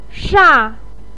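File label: "sha", Chinese pinyin, fourth tone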